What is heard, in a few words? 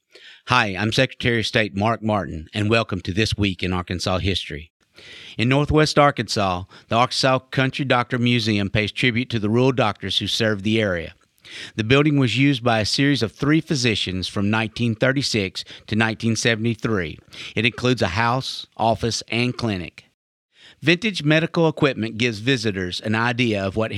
Radio Spot; Native American Pottery